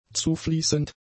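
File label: "zufließend", German; adjectives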